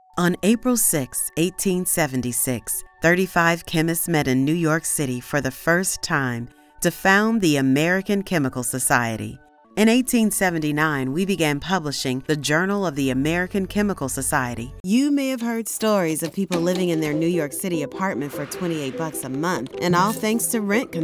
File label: Documentary Narration; voiceover